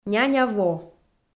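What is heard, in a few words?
"няня во", Komi, female; kpv; audio dict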